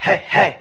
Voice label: "hey hey", voice; ooh ahh